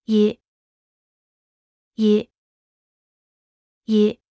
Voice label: 雨, mandarin; Traditional Chinese

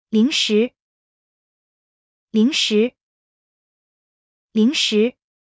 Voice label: "零食", Mandarin; Traditional Chinese

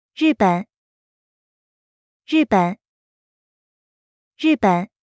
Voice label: "日本", mandarin; Traditional Chinese

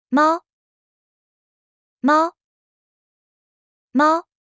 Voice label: "貓", Mandarin; Traditional Chinese

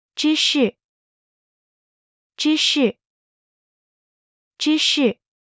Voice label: "芝士", mandarin; Traditional Chinese

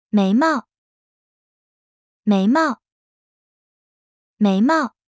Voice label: 眉毛, mandarin; Traditional Chinese

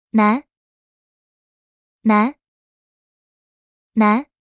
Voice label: "男", mandarin; Traditional Chinese

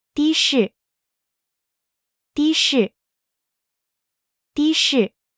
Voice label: 的士, mandarin; Traditional Chinese

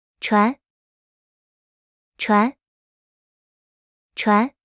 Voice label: "船", Mandarin; Traditional Chinese

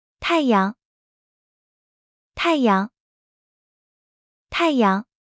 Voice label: "太陽", mandarin; Traditional Chinese

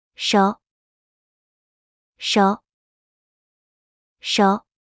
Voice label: 手, mandarin; Traditional Chinese

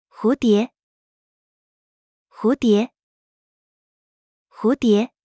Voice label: 蝴蝶, mandarin; Traditional Chinese

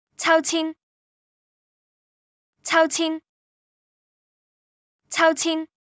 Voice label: 秋千, Cantonese; Traditional Chinese

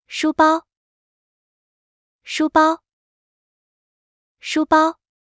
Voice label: "書包", Mandarin; Traditional Chinese

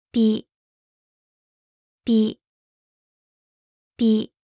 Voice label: "筆", Mandarin; Traditional Chinese

